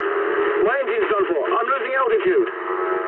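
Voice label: English damaged engine mood medium; War Thunder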